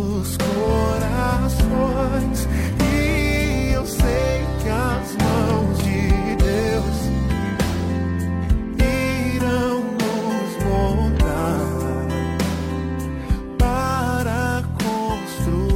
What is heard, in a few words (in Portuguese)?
gospel namorada, Dia dos Namorados para Namorada Evangélica – Voz Masculina